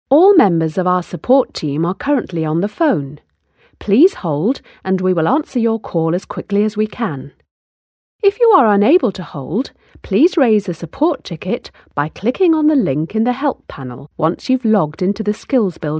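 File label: British English, female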